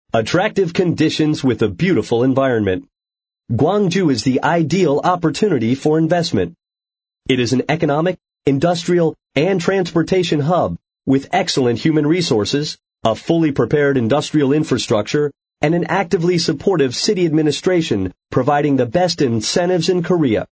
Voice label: American English, M